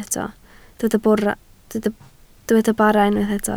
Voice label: "bara", Welsh